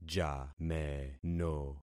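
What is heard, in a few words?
"jameno", concatenated syllables; Affixing experiment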